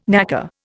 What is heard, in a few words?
"knacker", Indian